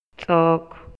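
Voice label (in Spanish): Maya, Yucatán, male; INALI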